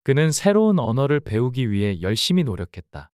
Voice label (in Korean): 문장 남자; 그는 새로운 언어를 배우기 위해 열심히 노력했다